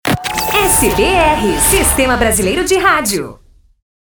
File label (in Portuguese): vinheta radio voz feminina animada; sbr sistema brasileiro de radio; rapida